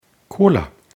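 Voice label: "cola", German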